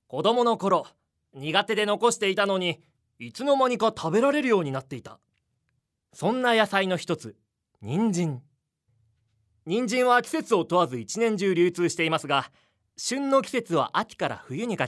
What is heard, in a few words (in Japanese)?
ナレーション